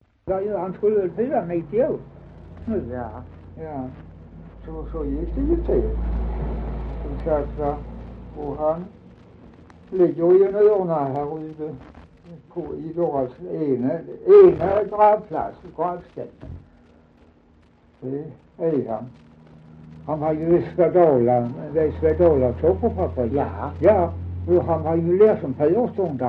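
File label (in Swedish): Dalby Skåne, dialekter; Folkminnen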